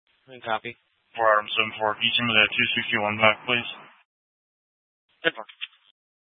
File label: Mesa PD Dispatch